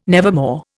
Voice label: "nevermore", Indian